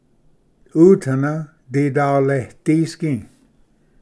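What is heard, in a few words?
"utana didaleidisgi", Cherokee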